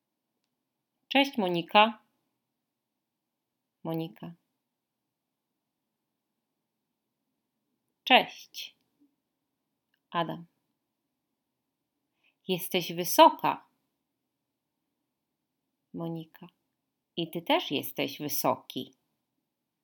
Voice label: text dialogue, Polish language